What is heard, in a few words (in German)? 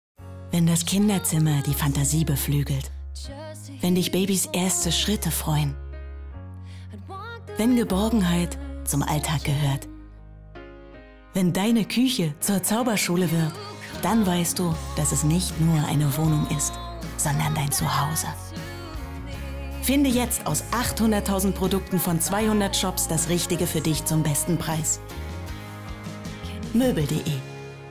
WERBUNG; moebel de